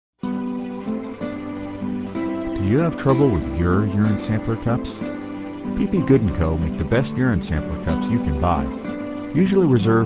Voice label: Commercial; PP Goode An Co Urine Cup